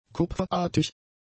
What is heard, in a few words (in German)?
"kupferartig", German; adjectives